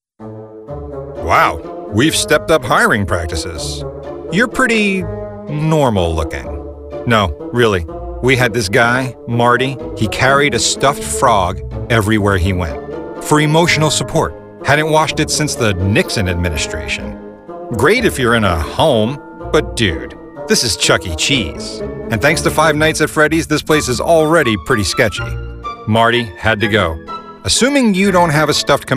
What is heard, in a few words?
E-Learning